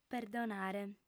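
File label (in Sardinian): "perdonare", female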